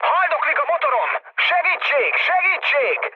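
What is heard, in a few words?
Hungarian damaged engine mood med, speech; War Thunder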